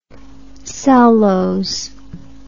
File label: "cellose", English